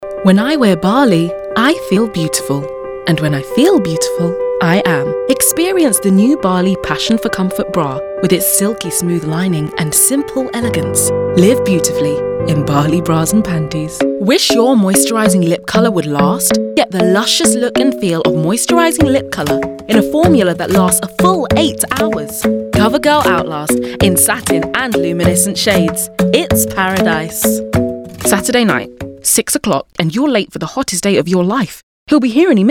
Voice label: commercial